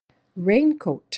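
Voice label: "raincoat", English; useful words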